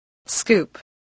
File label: "scoop", english spelling